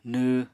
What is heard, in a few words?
"nww", Apatani